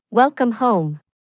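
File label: Welcome home, English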